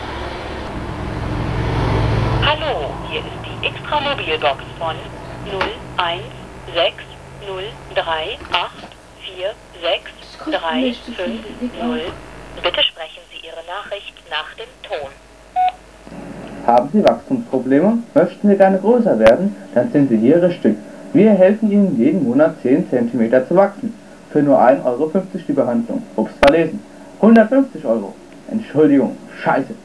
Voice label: Mailbox